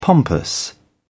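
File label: "pompous", British English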